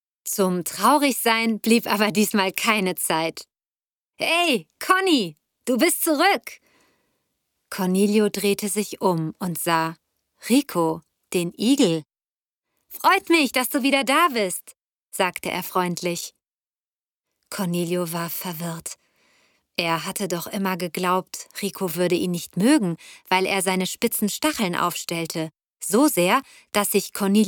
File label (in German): Kinderbuch, Sprecherin